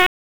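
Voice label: Police District Dispatch, p25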